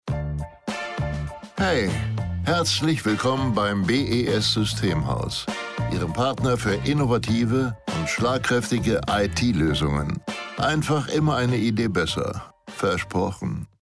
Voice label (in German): Begruessungsansage; BES Systemhaus